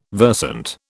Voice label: "versant", British